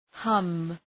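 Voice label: "hum", English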